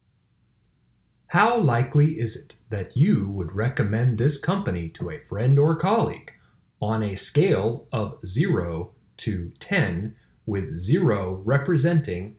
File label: IVR NPS script ulaw